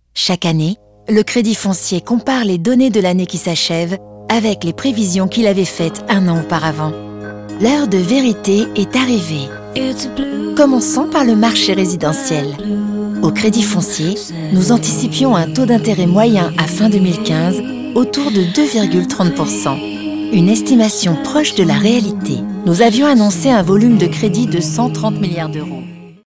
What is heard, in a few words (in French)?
neutre concernée douce; Crédit Foncier